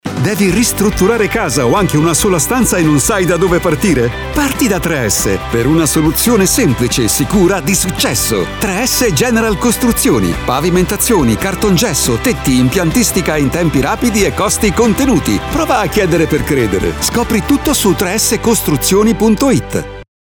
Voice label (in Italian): deciso, pubblicita radiofonica; demo voci pubblicita radiofonica